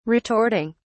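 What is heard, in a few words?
"retorting", British English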